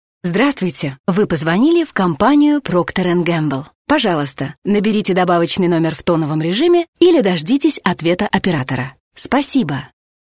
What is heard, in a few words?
Dictors Woman rus